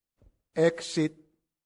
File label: "exit", Latin; santillana